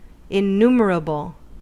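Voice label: "innumerable", US English; pronunciations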